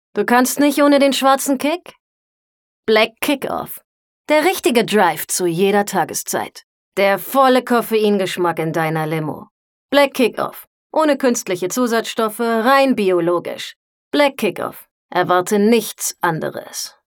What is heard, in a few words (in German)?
Werbung cool